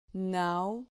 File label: "nau", Spanish